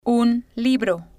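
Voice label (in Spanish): "un libro", Spanish, female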